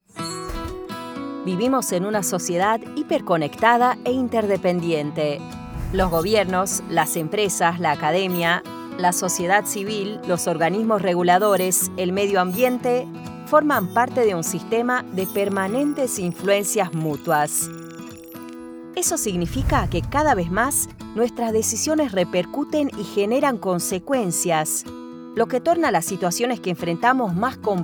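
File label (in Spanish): Español Argentina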